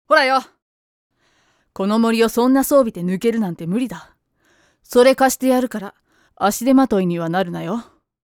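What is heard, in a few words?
female soldier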